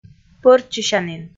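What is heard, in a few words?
"пӧрччысянін", Komi, female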